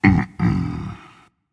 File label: Disagree, Dragon; fafnir